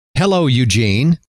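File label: "hello", male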